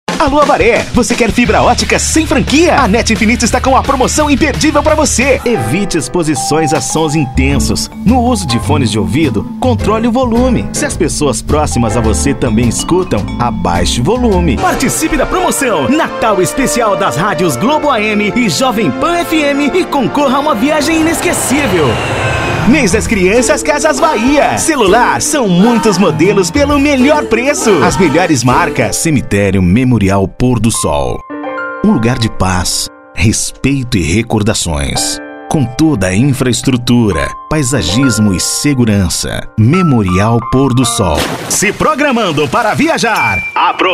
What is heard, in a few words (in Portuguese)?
locutor